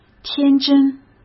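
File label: "天真", Mandarin Chinese; chinese flashcards; hsk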